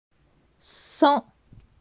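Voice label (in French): "Sans", French; grammaire; la negation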